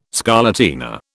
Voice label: "scarlatina", British